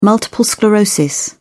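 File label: "multiple sclerosis", English, British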